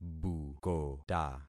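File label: "bukota", concatenated syllables; Affixing experiment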